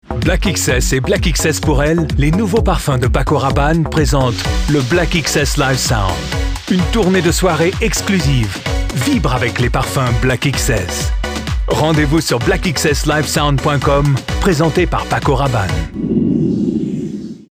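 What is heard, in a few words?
Voix Off Voice Over, bilingue Américain Français; Black XS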